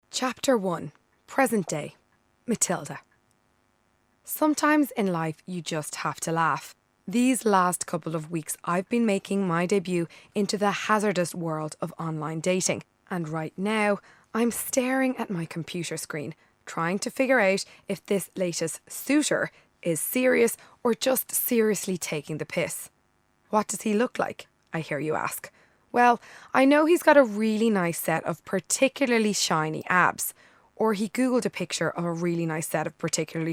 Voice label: narration

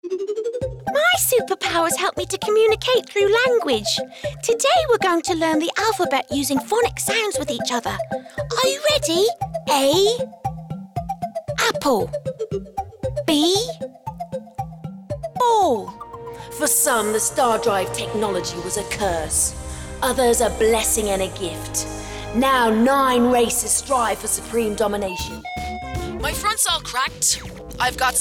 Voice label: Voice Character

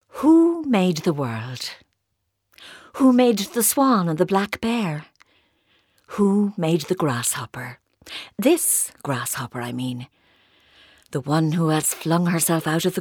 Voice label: narration

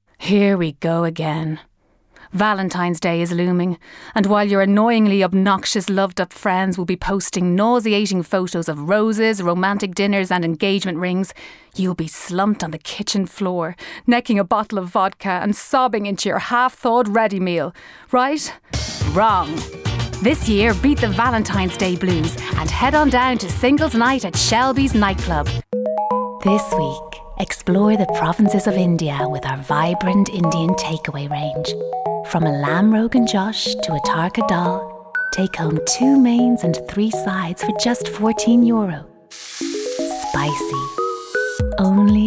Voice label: commercial voice

